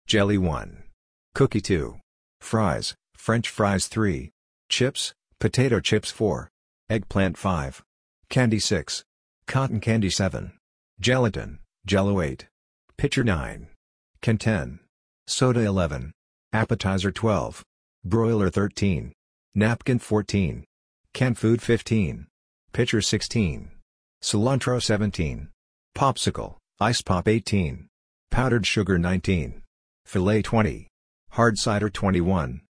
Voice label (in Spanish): Ingles americano